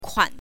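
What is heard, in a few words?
"kuan", Mandarin Chinese, neutral tone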